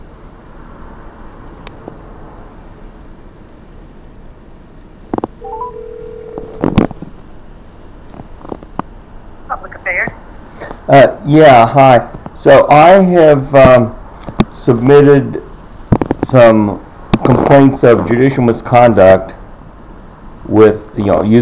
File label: Phone Call